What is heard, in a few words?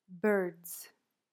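"birds", English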